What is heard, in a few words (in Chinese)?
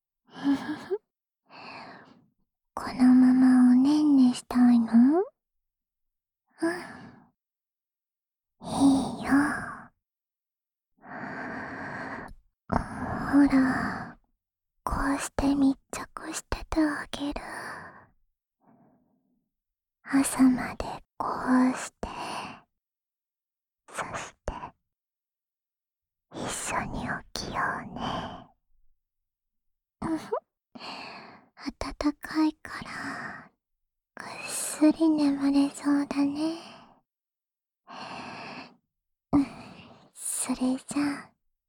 安眠用陪睡循环（左侧）; 束彩的安眠用陪睡循环（左侧）